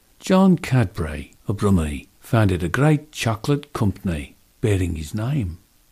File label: Birmingham Sample Exercise